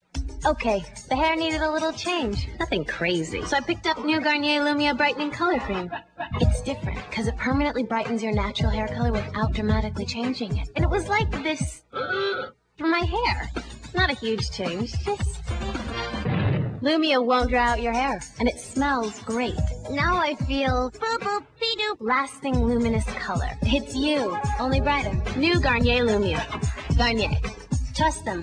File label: commercial; garnier